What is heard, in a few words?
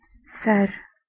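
"sar", Urdu, female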